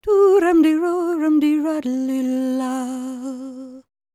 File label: WELSH F, World Voices